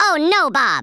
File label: "oh dear", US Sports